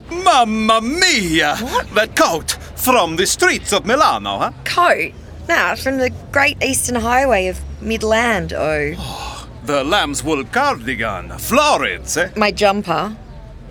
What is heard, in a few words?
ITALIAN MALE RADIO; 30s; Vinnies; wildlings; WA suburbs; fashion capitals